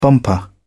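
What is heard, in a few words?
"bumper", British English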